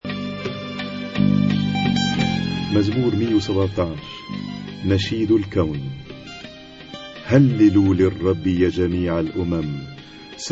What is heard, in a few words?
Arabic Psalm Zaboor